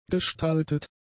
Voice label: "gestaltet", German; adjectives